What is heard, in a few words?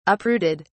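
"uprooted", British English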